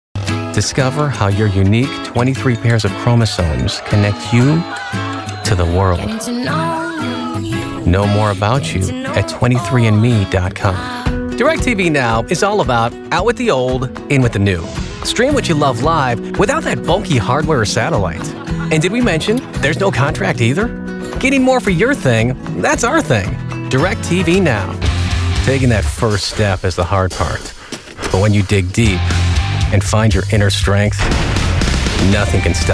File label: Commercial, Male voices